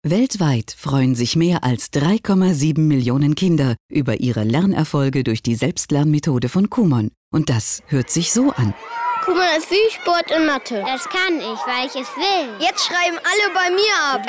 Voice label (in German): funkspot; kumon